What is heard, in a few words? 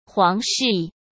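"皇室", Mandarin Chinese; hsk; chinese-flashcards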